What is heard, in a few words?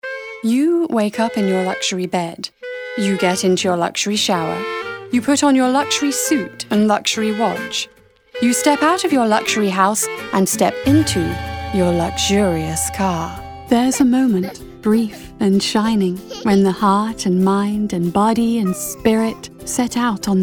languages british